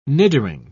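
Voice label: "niddering", British English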